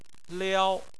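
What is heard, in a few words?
"liao", Chinese, first tone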